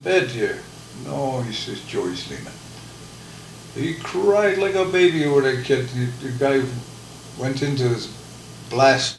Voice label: Personal history with 66 year old male Buhl Minnesota; american english dialect